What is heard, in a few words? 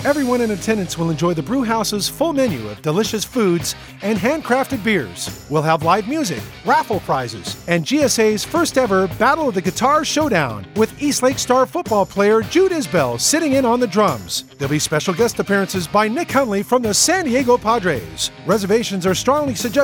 fundraiser voice over; gonzales sports academy